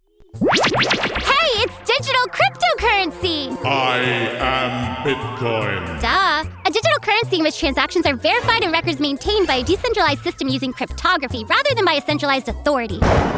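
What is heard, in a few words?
Animation voice-over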